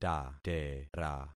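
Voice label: "tatera", concatenated syllables; Affixing experiment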